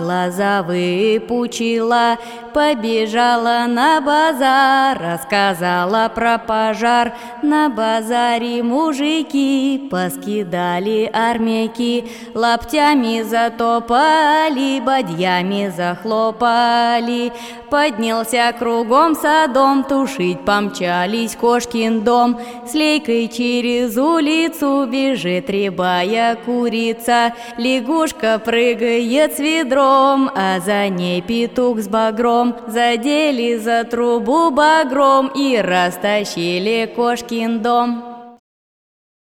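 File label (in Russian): оповещение тили бом детский сад; rechevye soobshcheniya soue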